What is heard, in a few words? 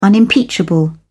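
"unimpeachable", British English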